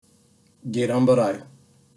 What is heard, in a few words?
"gedan barai", basic japanese words; Basic Japanese Terms